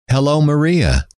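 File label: hello, male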